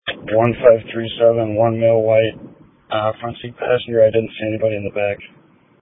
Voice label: Police Tactical Encrypted